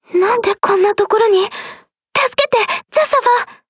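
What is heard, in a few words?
start, Japanese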